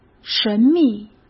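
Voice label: "神秘", Mandarin Chinese; hsk; chinese-flashcards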